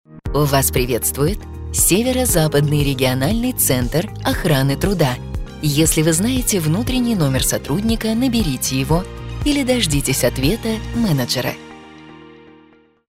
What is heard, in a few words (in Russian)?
Женский красивый имиджевый голос; Голосовое приветствие, автоответчик или меню IVR